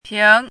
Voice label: "ping2", pinyin

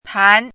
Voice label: "pan2", pinyin

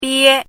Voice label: "bie1", pinyin

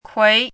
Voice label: "kui2", pinyin

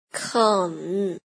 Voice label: "keng3", pinyin

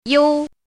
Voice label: "you1", pinyin

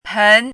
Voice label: "pen2", pinyin

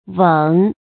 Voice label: "weng3", pinyin

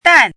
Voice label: "dan4", pinyin

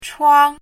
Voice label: "chuang1", pinyin

